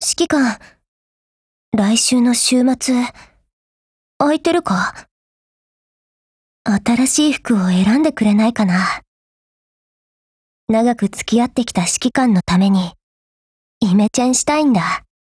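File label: dialogue wedding, Japanese; NZ75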